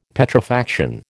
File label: "Petrifaction", American